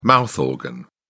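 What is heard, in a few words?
"mouth organ", British English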